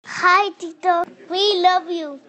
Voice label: toddler voice